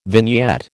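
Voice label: "vignette", American English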